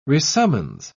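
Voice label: "resummons", English, British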